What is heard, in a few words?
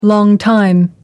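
"longtime", British English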